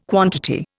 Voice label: "quantity", Indian